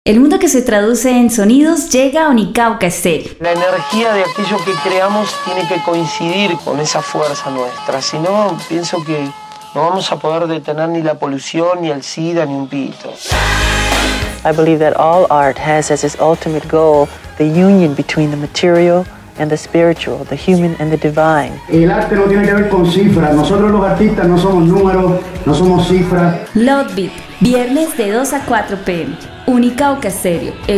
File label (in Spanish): PROMO; LoveBeat